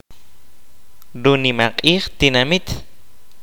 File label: "fair", kaqchikel